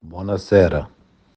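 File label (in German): "buona sera", italienisch; Guten Abend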